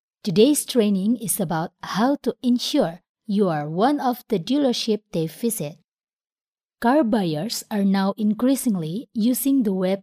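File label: Indonesian female voice over, English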